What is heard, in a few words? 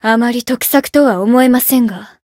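encounter fear, Japanese; felmina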